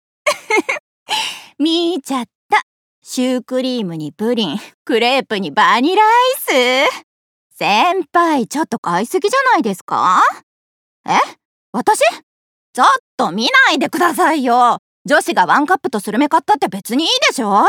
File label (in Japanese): テンション高めOL